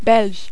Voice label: "belge", French

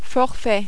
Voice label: "forfait", French

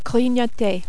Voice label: "grignoter", French

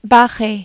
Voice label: "barrer", French

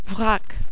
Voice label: "vrac", French

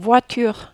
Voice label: "voiture", French

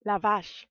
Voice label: "la vache", French

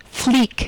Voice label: "flic", French

